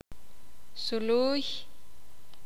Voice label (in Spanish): mariposa, sakapulteko